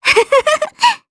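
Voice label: Vox Happy, Japanese; Kirze; kingsraid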